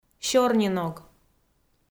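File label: "сёрниног" Komi, female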